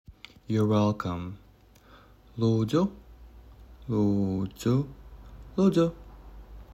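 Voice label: Youre welcome, Latvian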